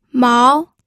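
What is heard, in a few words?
"mao", second tone, pinyin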